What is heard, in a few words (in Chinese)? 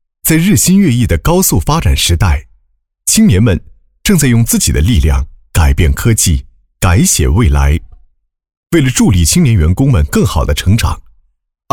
男, 时尚轻松, 大气主流 轻松活泼; 蚂蚁集团团委